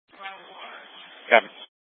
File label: Scanner audio recording